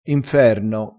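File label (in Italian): "inferno", vocabolario dialettale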